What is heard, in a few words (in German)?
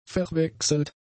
"verwechselt", German; adjectives